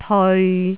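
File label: "teoi3", Cantonese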